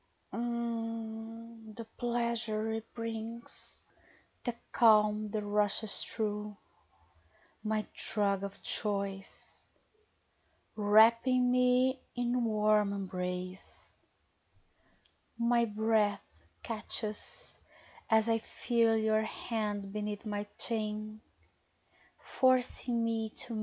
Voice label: Control, Erotic Poetry, Fsub, Brazilian accent, F4M